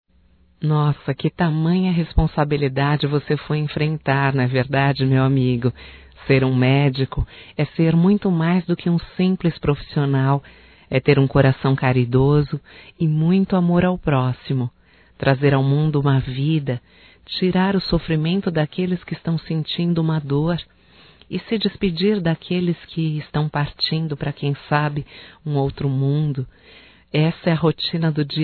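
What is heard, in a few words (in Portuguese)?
Homenagem ao medico, voz feminina; Telemensagens; HOMENAGENS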